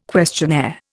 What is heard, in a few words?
"questionnaire", Indian